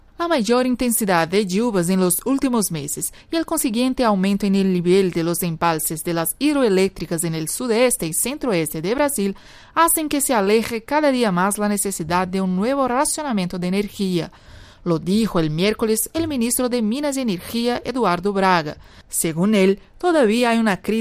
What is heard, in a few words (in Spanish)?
voces, Español; racionamiento